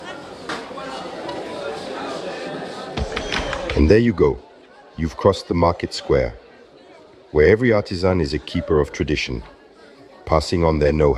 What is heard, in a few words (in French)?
place marche narrateur en dubbed